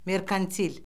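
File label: "merkantil", Azerbaijani; Orfoqrafiya Lüğəti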